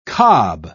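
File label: "kob", English, British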